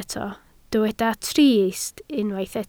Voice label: "trist", Welsh

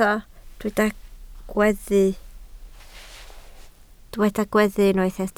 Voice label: "gweddu", Welsh

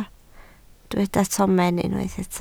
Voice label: "tomen", Welsh

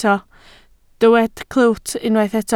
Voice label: "clwt", Welsh

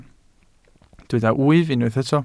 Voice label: "wyf", Welsh

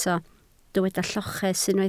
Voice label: "lloches", Welsh

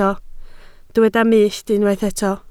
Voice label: "mull", Welsh

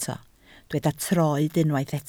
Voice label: "troed", Welsh

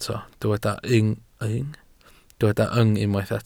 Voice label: "yng", Welsh

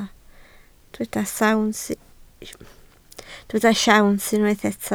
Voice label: "siawns", Welsh

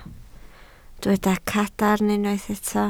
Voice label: "cadarn", Welsh

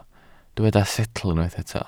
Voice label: "setl", Welsh

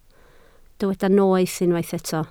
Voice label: "noeth", Welsh

